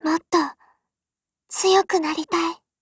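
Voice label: PHRASE Japanese; M4A1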